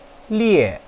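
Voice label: "lie4", Chinese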